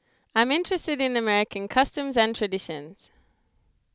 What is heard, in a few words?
eng